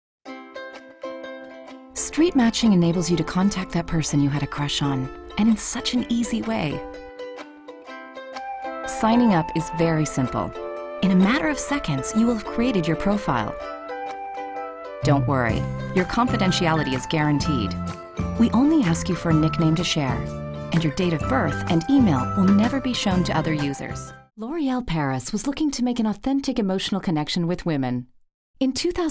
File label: corporate